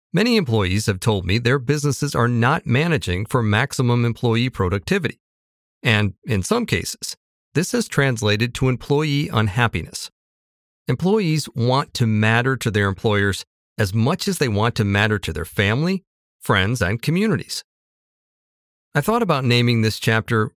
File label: audiobook sample; activate human capital